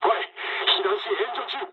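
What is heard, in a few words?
on fire mood low, speech japanese; War Thunder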